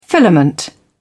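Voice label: "filament", English, British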